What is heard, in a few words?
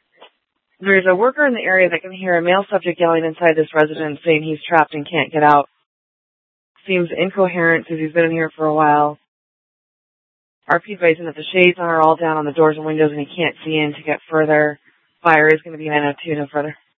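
Scottsdale PD North Dispatch; Nth Dispatch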